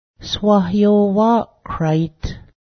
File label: apple tree, Cayuga; swahyo:wáˀ kráit